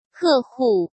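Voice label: "客户", Mandarin Chinese; chinese-flashcards; hsk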